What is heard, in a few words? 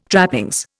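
"trappings", Indian English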